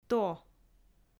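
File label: "то", Komi, female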